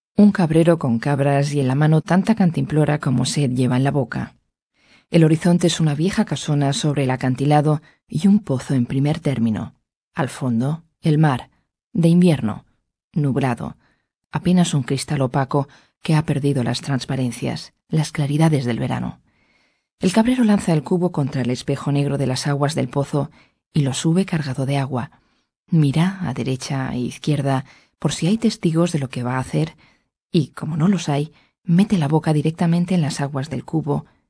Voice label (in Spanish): es audiolibro; montalban